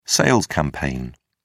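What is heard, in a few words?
"sales campaign", British English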